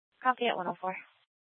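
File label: police dispatch, scanner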